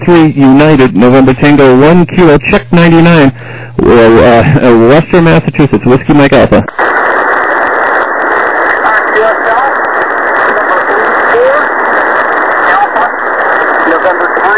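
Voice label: lower sideband 7MHz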